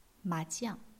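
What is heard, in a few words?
"ma2jiang4", Chinese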